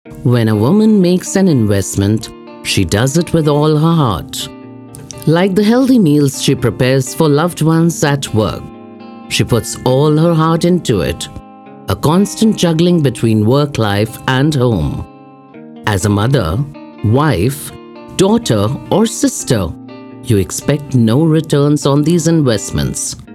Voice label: promo english

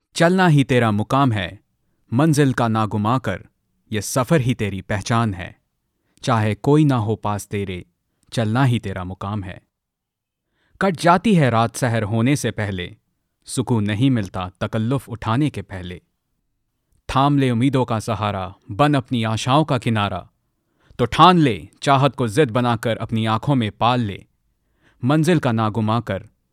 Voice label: Hindi NARRATION

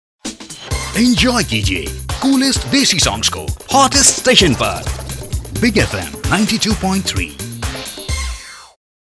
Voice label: promo hindi

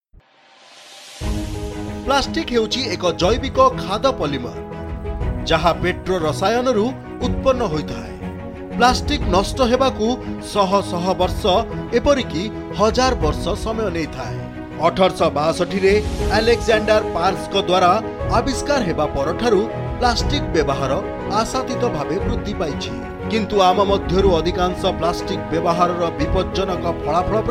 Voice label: corporate oriya

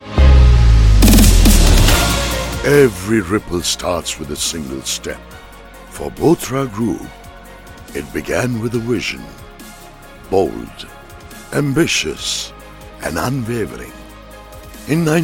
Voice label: corporate, English

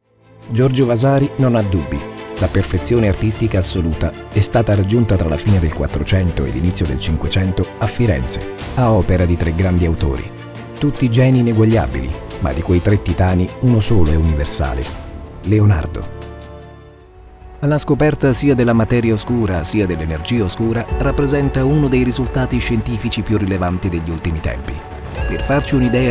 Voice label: Narration Documentary

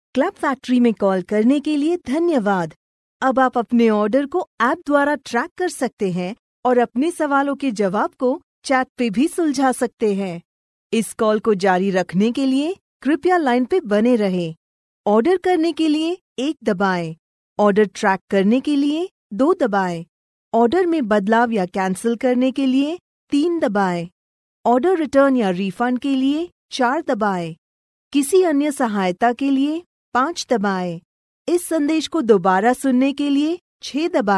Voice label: ivr hindi

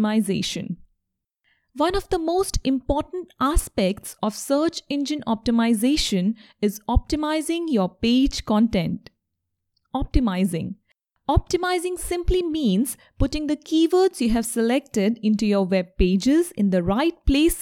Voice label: English Elearning

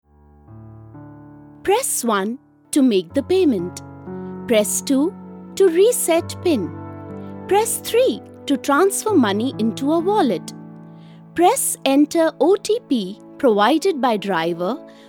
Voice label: Eng IVR

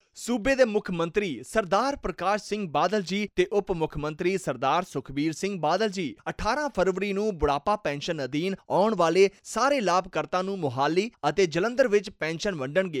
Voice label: punjabi

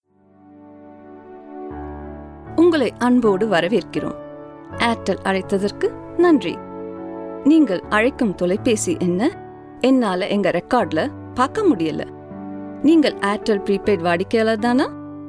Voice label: IVR tamil

